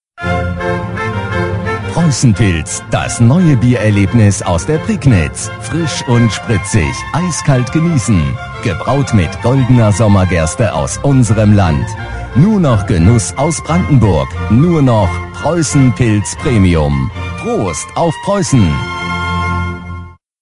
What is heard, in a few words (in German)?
werbespot; Preussenpils Werbespot